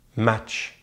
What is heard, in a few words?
"match", French; easypronunciation